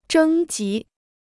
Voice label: "征集", Chinese